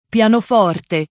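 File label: "pianoforte", Italian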